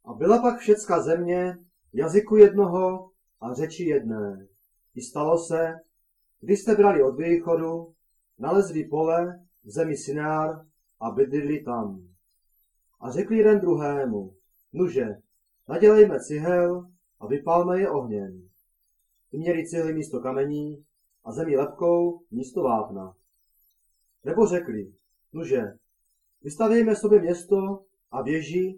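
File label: Czech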